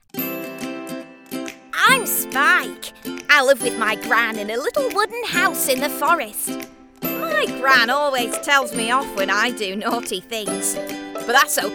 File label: Cartoon